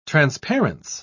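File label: "transparence", British English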